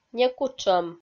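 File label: "некутшӧм", Komi-Zyrian, female; kpv audio dict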